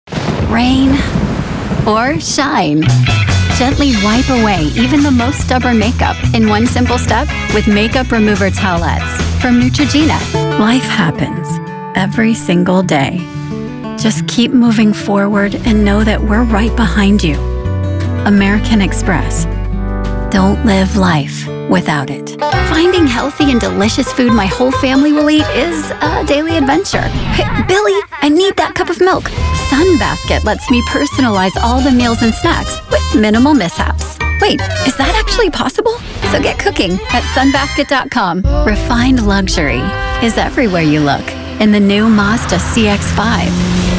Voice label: Commercial